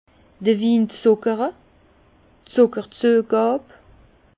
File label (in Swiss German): "zuckere", Bas Rhin; vin; chaptalisation